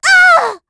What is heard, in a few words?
Damage, kr; Estelle; Kings Raid